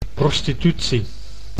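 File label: "prostitutie", Dutch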